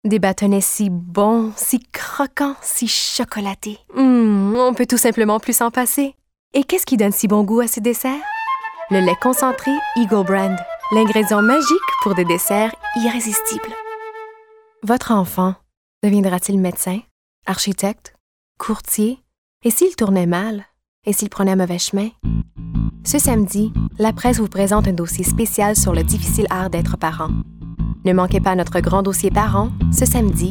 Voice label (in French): publicités